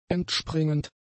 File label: "entspringend", German; adjectives